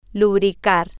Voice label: "lubricar", spanish